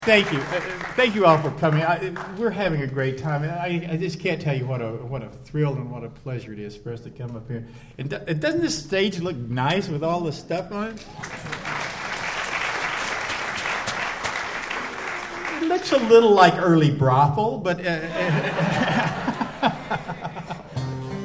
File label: Audience Participation Story